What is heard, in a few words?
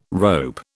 "rope", British